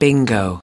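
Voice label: "bingo", US English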